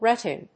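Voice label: "rutting", English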